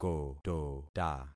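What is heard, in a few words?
"kotota", concatenated syllables; Affixing experiment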